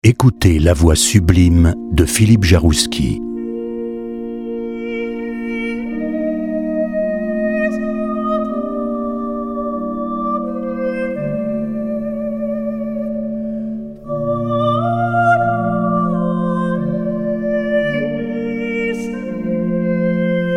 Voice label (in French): Pub Radio; Radio Classique; EMI; Jaroussky